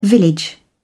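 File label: "village", English, British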